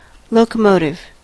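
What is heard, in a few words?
"locomotive", English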